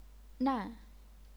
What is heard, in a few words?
"nan4", chinese pinyin